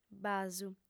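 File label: "basu", female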